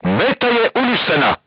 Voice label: voice message target destroyed, crew tanks serbian